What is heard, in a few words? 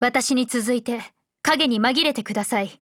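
GO ATTACK Japanese; P290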